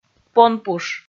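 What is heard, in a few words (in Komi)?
"понпуш", Komi, female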